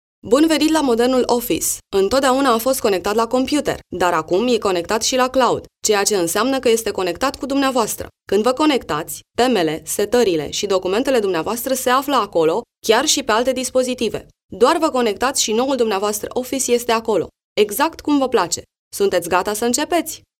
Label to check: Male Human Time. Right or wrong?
Wrong